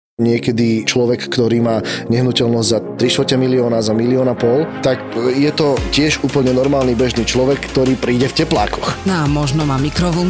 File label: TRAILER, podcast; BYT či neBYT